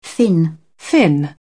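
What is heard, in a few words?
"fin", French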